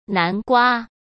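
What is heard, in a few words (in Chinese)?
"南瓜", Mandarin Chinese; chinese-flashcards; hsk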